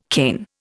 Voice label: "cane", Indian